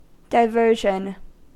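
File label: "diversion", American English; pronunciations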